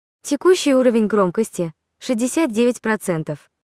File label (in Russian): siri uroven gromkosti; imac